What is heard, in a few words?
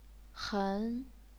"hen2", chinese pinyin